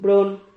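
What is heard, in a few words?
"bron", Spanish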